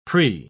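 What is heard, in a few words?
"prix", British English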